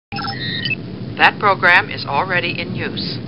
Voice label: Star Trek Computer Voice